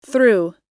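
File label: "threw", US English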